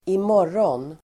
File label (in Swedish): "i morgon", swedish